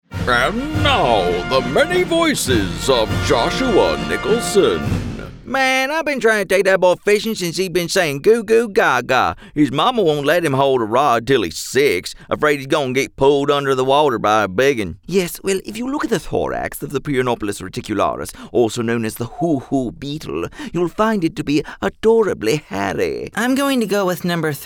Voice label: Animation